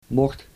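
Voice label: "mocht", Dutch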